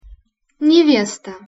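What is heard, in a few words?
"nevesta", Russian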